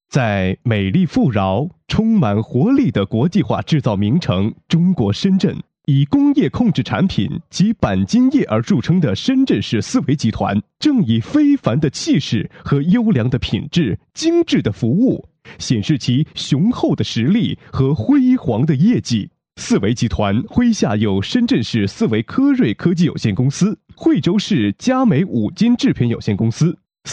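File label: Chinese, male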